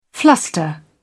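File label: "fluster", British English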